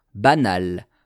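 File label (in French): "banals", French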